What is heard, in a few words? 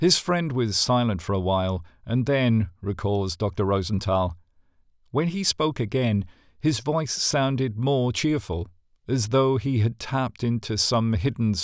Straight Read Magazine Health